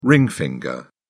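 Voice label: "ring finger", British English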